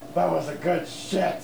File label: Cheer